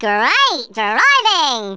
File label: "excellent", Formula One; Speech; Worms Armageddon